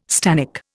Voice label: "stannic", Indian